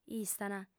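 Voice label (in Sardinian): "istant", Sardinian, female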